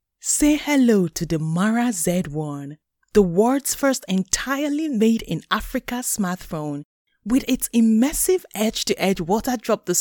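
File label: English West African F Ad; accent of africa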